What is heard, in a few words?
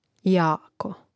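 "jako", Croatian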